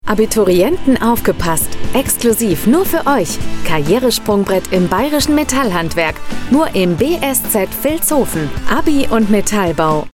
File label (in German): Funkspot; Staatliches Berufliches Schulzentrum Vilshofen an der Donau